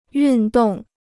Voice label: "运动", Chinese

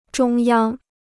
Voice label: "中央", Chinese

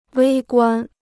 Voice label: "微观", Chinese

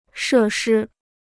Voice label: "设施", Chinese

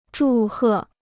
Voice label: "祝贺", Chinese

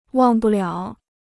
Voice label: "忘不了", Chinese

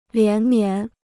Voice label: "连绵", Chinese

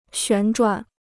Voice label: "旋转", Chinese

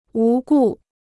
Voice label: "无故", Chinese

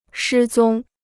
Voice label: "失踪", Chinese

